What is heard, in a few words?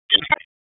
Police Spec Ops Tac 4, p25; wake